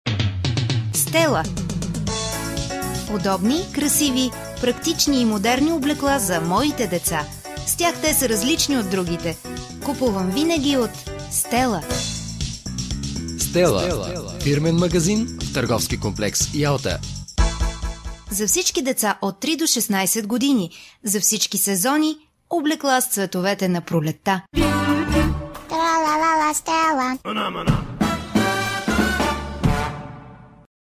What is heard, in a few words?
Bulgarian advert; voice over